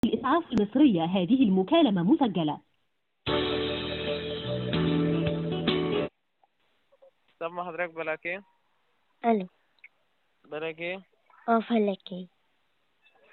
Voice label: Call recording Emergency number